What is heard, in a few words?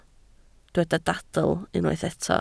"dadl", Welsh